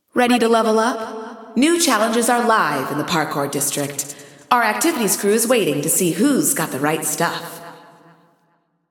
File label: Announcing New Challenges; Parkour; GAIA